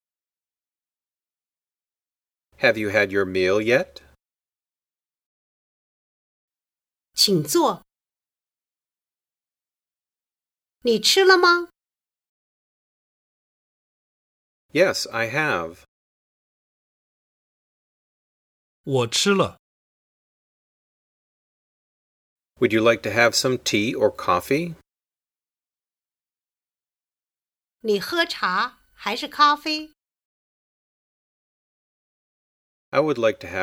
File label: Dialog